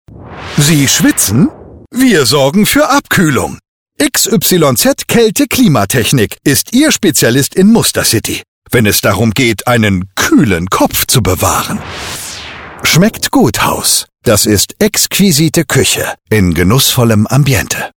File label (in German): schmunzelnd genussvoll